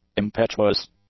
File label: "impetuous", English; adjectives